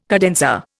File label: "cadenza", Indian